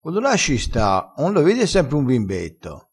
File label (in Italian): "bimbetto", vocabolario dialettale